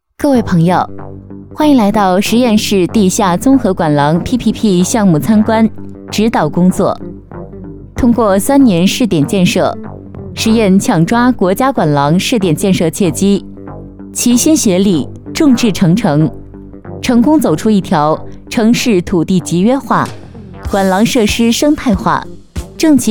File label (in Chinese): 女 汇报